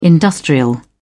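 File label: "industrial", British English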